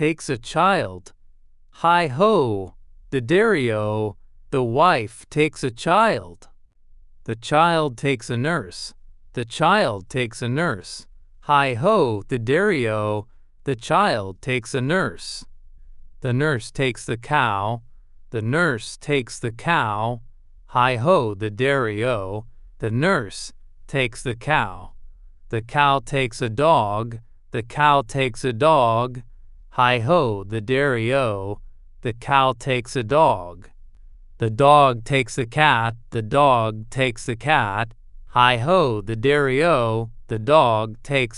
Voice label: The Farmer in the Dell, male, US